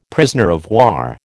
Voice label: "POW", American